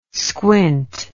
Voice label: "squint", english

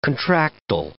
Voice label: "contractile", English

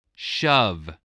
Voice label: "shove", spelling

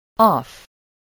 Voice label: "off", voice, spelling

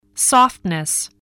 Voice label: "softness", English voice

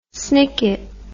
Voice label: "snicket", english

